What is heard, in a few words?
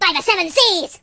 Grenade, Pirate voice; hedgewars